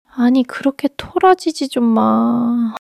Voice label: sadness